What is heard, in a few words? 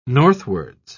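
"northwards", British English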